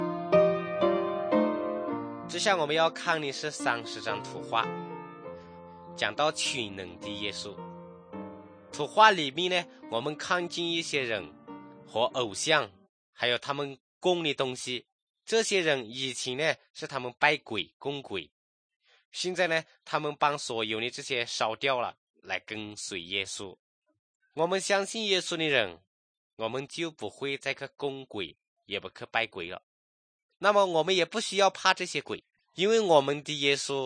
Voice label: good news shan, drama